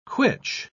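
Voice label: "quitch", English, uk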